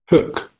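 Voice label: "hook", English, fronted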